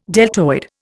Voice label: "deltoid", Indian